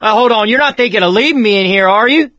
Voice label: call for rescue, survivor voice